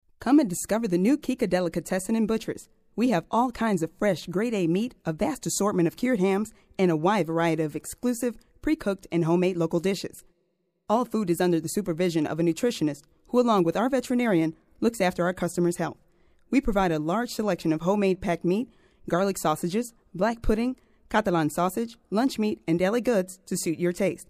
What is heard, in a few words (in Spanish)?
locutora estados unidos; norteamericanos USA